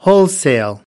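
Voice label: "wholesale", English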